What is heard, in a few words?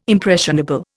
"impressionable", Indian